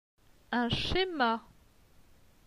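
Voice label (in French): "Un schema", French; phonetique; les consonnes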